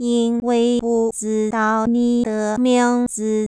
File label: concat, Direct concatenation of recorded syllables, Mandarin; Mandarin Speech